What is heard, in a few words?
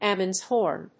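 "Ammons horn", English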